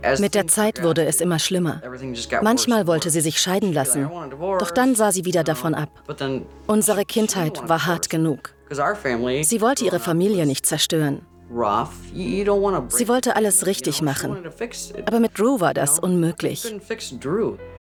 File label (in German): Overvoice bedrueckend; Murder Made Me Famous EP 107; ZDF